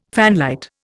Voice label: "fanlight", Indian